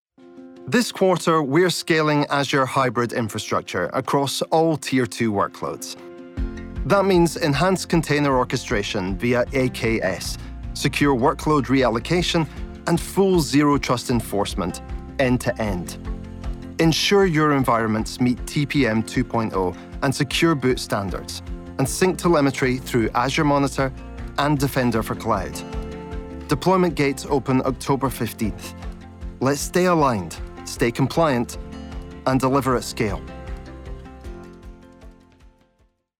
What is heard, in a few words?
Corporate